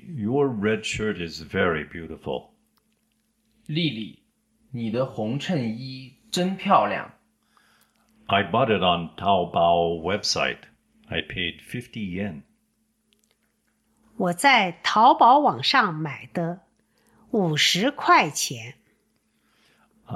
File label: Dialog